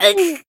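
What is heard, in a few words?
Voice damaged Japanese; buria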